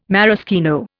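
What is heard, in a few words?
"maraschino", Indian English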